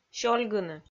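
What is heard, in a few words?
"сёльгыны", Komi, female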